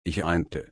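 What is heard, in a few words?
"einen", German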